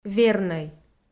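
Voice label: "вернӧй", Komi, female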